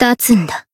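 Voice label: Voice heal, Japanese; suzette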